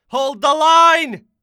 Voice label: Hold The Line British Warrior

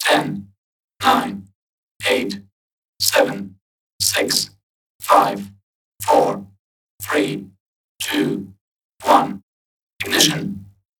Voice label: Cyborg Ignition Countdown

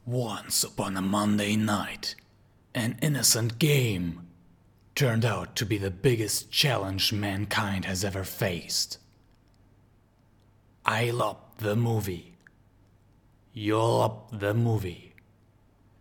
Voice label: Trailer Voice; Yl P The Movie

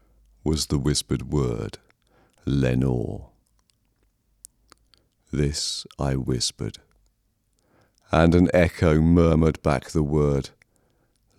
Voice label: Poem Darkness Voice

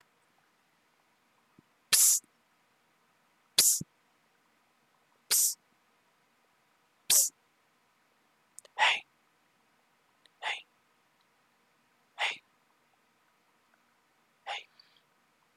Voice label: Psst Pst Hey

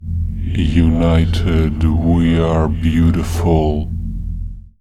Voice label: Evil United We Are Beautiful Voice

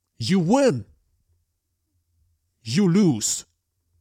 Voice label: You Win You Loose Deep Voice

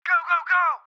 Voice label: Radio Comm

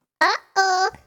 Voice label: Cartoon Uh Oh

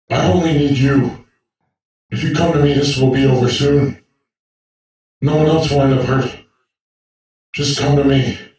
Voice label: This Will Be Over Soon Male Android Voice Line

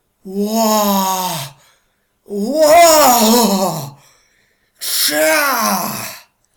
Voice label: Excited

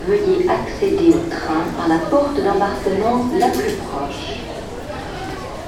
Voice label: Eurostar French Announce

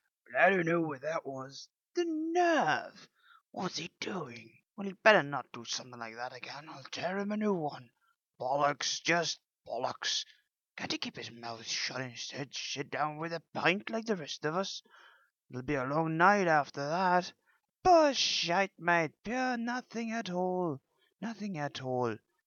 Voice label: Confused And Angry Muttering

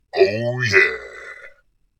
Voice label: "Oh Yeah" Low

